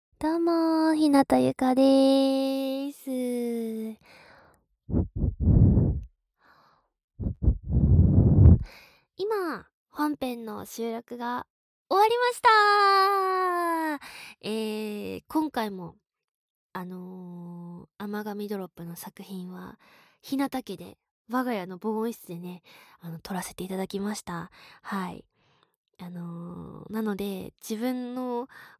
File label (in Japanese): フリートーク